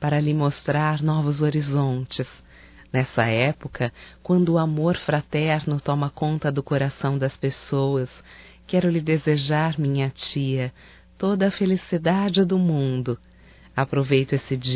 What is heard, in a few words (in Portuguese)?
NATAL TIA, Telemensagens, fem; Telemensagens 2017; Natal & Ano Novo